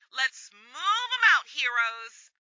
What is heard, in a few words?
Radio Chatter "Let's move 'em out heroes!"